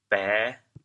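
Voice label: "bê5", Teochew, chaoyang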